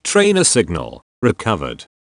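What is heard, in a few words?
"train ok", English; Taranis-Voicebot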